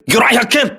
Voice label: voice message torpedo, crew ships japanese